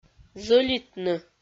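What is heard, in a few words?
"зӧлитны", Komi, female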